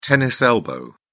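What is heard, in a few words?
"tennis elbow", British English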